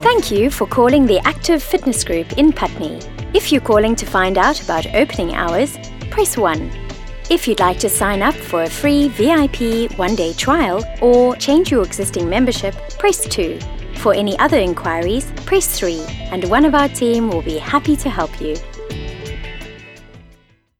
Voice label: Telephony